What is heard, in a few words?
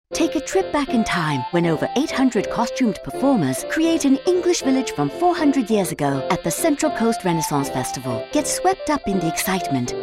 Radio Spot